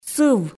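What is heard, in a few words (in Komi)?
"сыв", Komi, female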